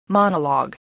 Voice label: "monologue", voice